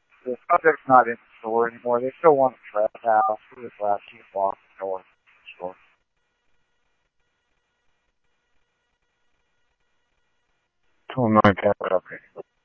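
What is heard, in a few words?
police radio dispatch, ScottsdalePolice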